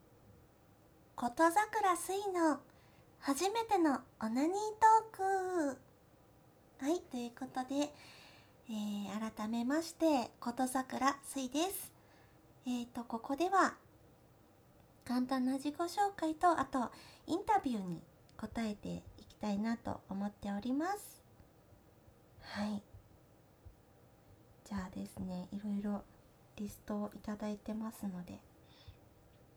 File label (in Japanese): 自己紹介 ステレオ; 44.1khz 16bit 2ch